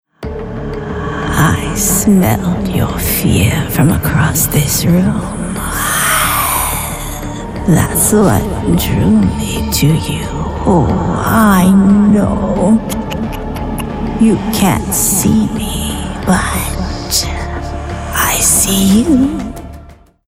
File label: Creature Sinister Teasing; FEMALE VOICEOVER; Vexana